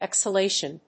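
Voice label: "exhalation", English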